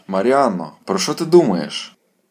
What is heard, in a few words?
dialogue, Ukrainian